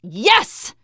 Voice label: taunt, teen girl